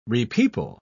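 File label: "repeople", British English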